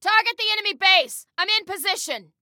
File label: Target Base, Female